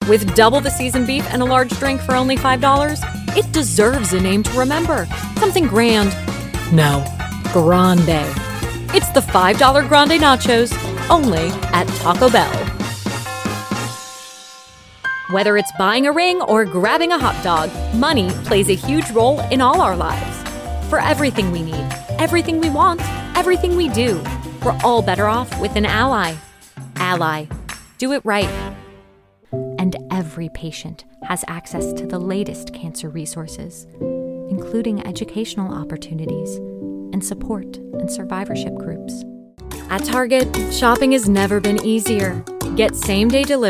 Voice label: Commercial